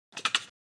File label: Female snake